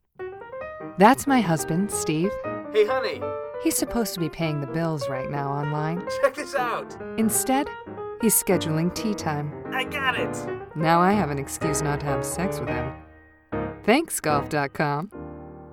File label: Commercial demo